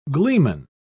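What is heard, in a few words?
"gleeman", British English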